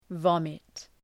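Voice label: "vomit", English word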